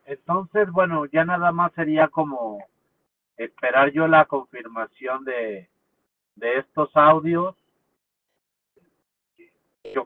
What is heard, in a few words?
whatsapp ptt